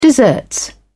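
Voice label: "deserts", British English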